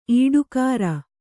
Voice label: "ಈಡುಕಾರ", Kannada